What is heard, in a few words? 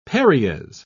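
"paries", British English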